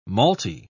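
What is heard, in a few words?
"malty", English, uk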